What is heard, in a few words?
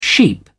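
"sheep", British English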